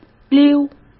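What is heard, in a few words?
"溜", Mandarin Chinese; chinese flashcards; hsk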